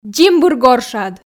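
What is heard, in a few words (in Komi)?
"дзимбыр горшад", Komi, female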